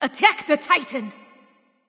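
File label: vox attack male; smite; terra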